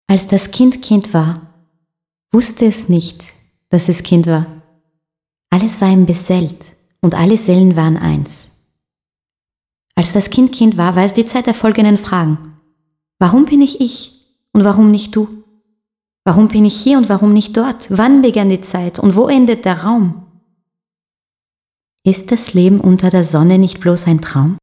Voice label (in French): en allemand; wenders